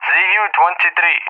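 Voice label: "ZU-23", English, RUSSIA; Allied Flight; AirDefence; DCS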